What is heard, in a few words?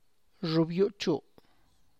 "xhub yu'txa", Tlacochahuaya Zapotec; stored corn; (Spanish) maiz guardado